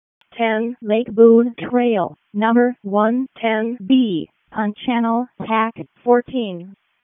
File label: EMS ALERT, p25